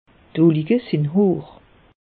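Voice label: "hoch", Alsatian; meteo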